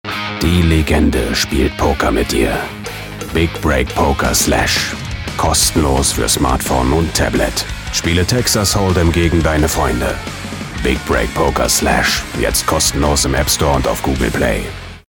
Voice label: TV Spot; Big Break Poker

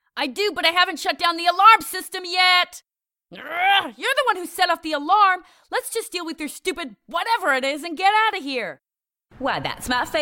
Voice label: Animation

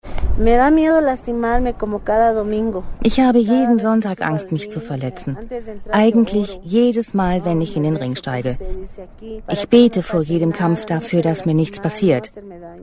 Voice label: Voice Over

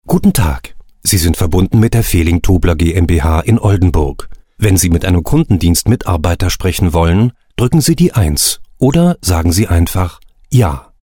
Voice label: IVR

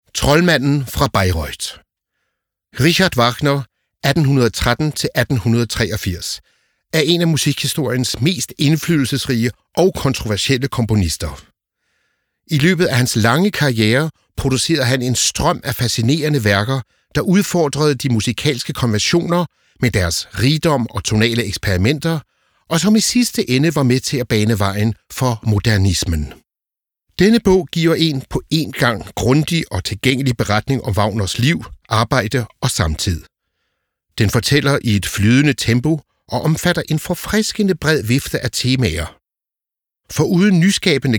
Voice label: Documentary

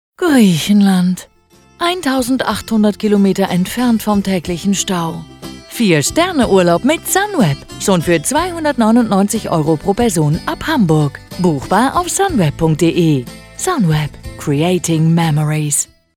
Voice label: commercial

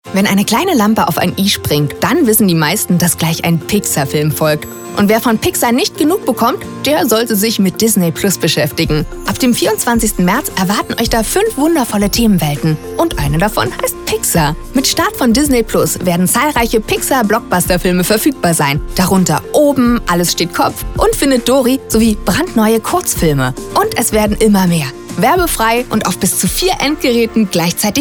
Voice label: Infomercial

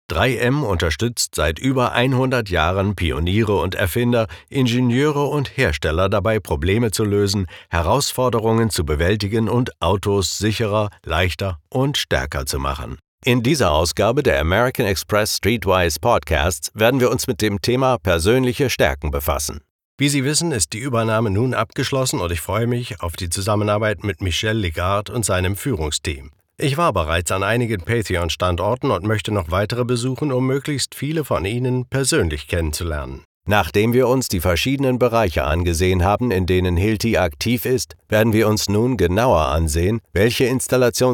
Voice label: German Narration

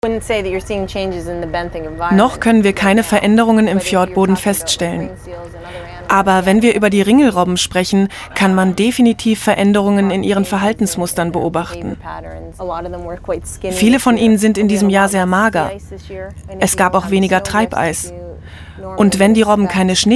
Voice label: Voiceover

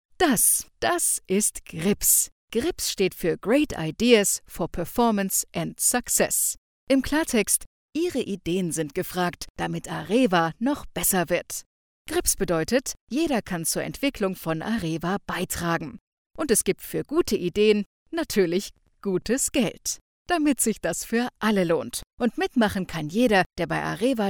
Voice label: E-Learning; Scribble

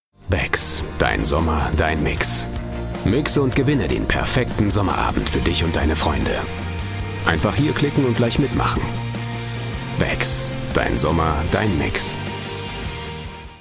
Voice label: TV Spot

